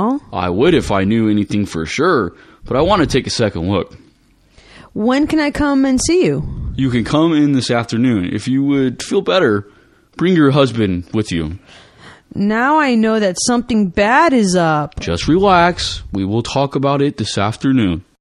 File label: Conversation, Discussing Test Results